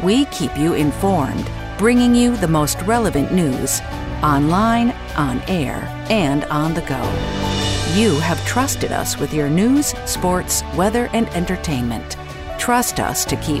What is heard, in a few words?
ENGLISH RADIO, radio spots; WAB Spot